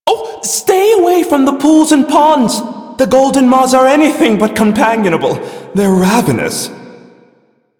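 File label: Male Brisk en, ambient dialog; Warframe; Duviri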